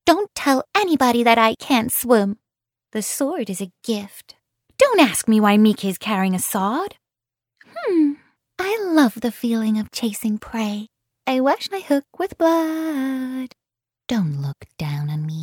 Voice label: english british animation